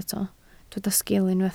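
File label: "sgil" Welsh, spoken word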